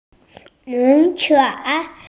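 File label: "ship", hearing impaired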